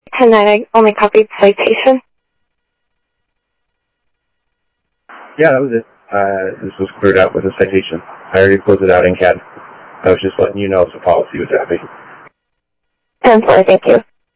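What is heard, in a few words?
Sheriff East County, scanner audio trunk; MCSO - EAST; ThompsonPeakScot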